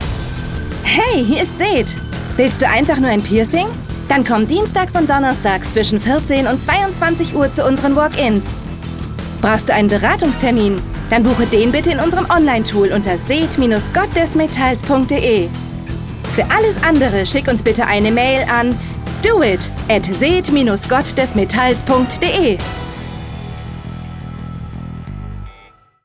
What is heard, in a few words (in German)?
Telefonansage punchy